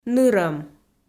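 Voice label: "нырӧм", Komi, female; audio dict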